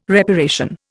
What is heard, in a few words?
"reparation", Indian